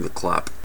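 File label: "clap", American English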